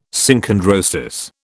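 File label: "Synchondrosis", British English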